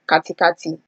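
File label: "katikati", Nigerian Pidgin, female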